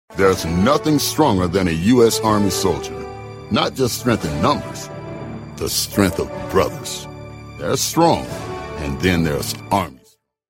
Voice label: us army commercial